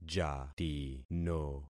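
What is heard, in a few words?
"jatino", concatenated syllables; Affixing experiment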